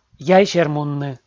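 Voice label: "яйсермунны", Komi, male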